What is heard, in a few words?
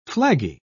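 "flaggy", British English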